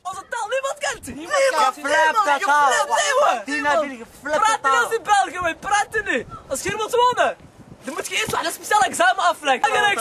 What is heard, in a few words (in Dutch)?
Het Algemeen Beschaafd Winterslags